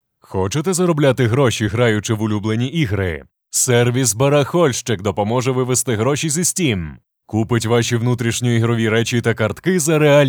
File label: Ua, golosa treki